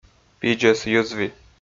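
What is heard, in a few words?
"пидзӧс йӧзви", Komi, male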